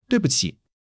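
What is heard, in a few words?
"dui4 bu5 qi3", Mandarin Chinese; HSK1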